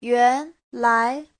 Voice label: "yuan2lai2", Chinese